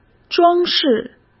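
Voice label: "装饰", Mandarin Chinese; hsk; chinese-flashcards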